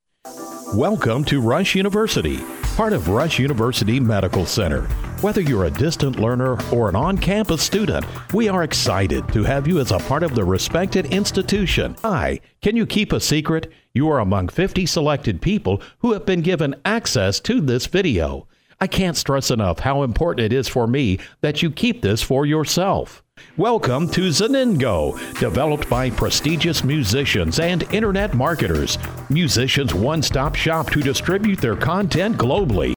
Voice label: english american narration

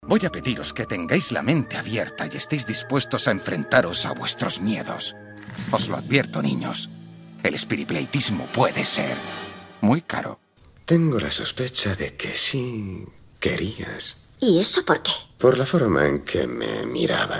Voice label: spanish animation

